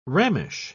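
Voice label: "rammish", British English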